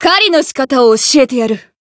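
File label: chant, Japanese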